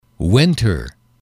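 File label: "winter", male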